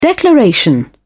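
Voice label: "declaration", British English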